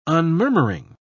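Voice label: "unmurmuring", British English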